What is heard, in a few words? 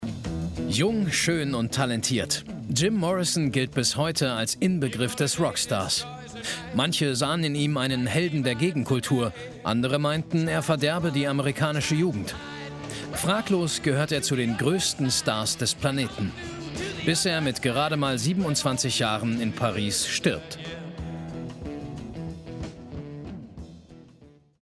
TV Narrator; the day the rockstar died